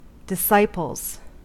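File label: "disciples", US English; pronunciations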